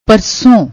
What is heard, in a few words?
"parson", Punjabi